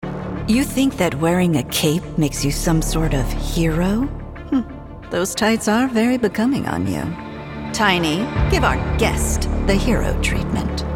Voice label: Animation